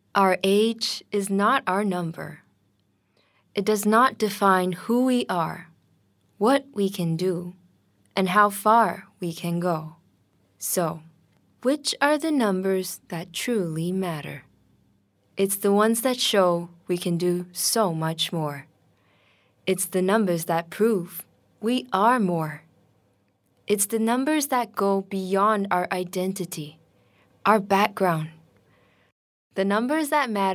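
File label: Female VO ENG Read Narration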